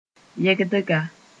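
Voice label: "yɛgdga", Mossi; cheek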